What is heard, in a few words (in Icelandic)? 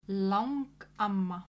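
"langamma", Icelandic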